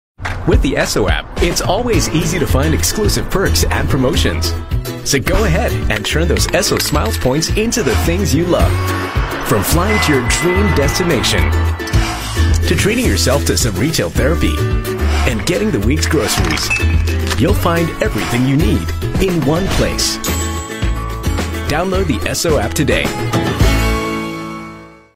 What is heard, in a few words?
Asian English download the app; Esso App